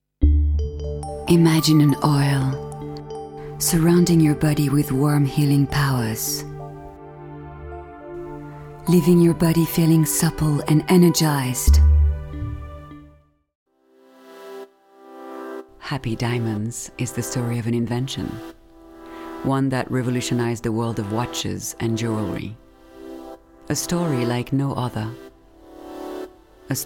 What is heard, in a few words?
English Commercials